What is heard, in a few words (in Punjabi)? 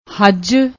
"huj", Punjabi; ਹੱਜ